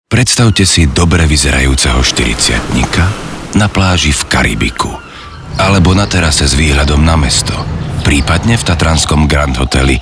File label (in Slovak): radio